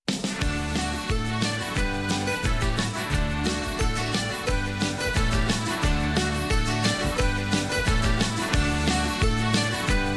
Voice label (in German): Eifeler Mundart; Gemeinschaftssitzung der Steika in Deidenberg